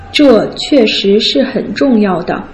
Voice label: "zhe que shi shi hen zhong yao de", Putonghua